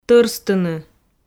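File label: "тӧрстыны", Komi, female; audio dict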